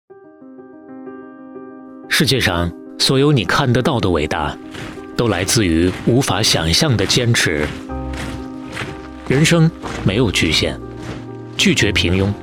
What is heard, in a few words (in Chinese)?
广告都市运动品推